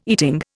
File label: "eating", Indian